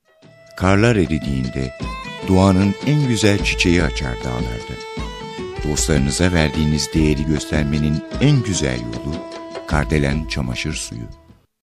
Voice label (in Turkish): reklam ses